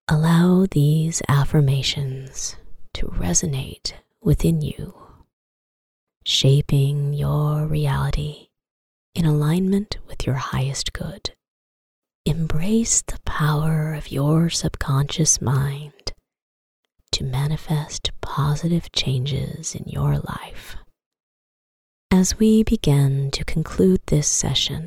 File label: Voice Sample Intimate Meditation ASMR